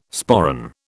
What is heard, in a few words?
"sporran", British